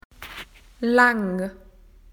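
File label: "long", Australian English; PictureDictionary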